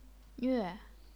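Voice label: "nve", fourth tone, chinese pinyin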